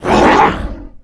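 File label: MONSTER ZOMBIE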